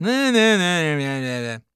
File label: Voice Clip Male; SFX Producer